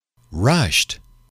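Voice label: "rushed", English, male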